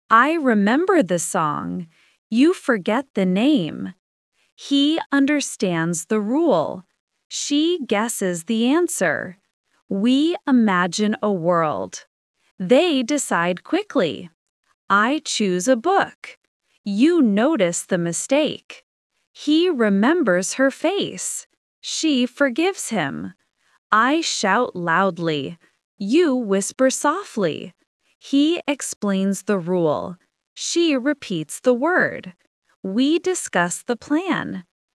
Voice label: AI speech